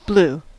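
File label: "blue", US English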